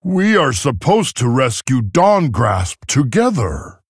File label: Male Tauren; Guff